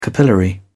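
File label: "capillary", British English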